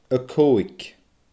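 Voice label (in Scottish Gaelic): "a cooig", Scottish Gaelic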